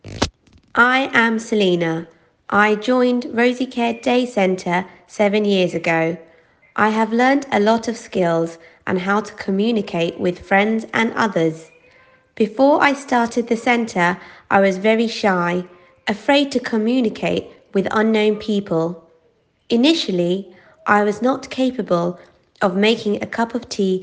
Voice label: Voiceover